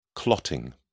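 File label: "clotting", British English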